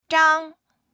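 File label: "zhang1", Mandarin Chinese; HSK合格講座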